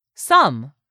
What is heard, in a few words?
"SOME", english; 3000 words